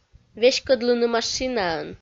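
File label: веськӧдлыны машинаӧн, Komi, female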